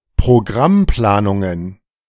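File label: "Programmplanungen", German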